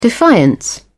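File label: "defiance", British English, dictionary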